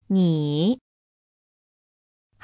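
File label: "你", Chinese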